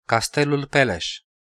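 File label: "Castelul Peleș", Romanian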